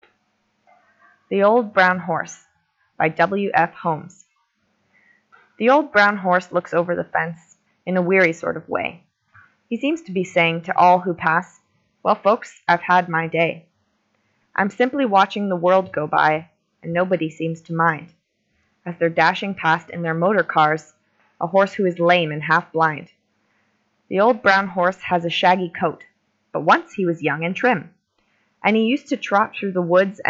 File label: boy, Speech Festival Boys Recording; The Old Brown Horse by W.F. Holmes